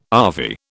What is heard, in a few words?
"ave", British